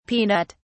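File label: "peanut (s)", British English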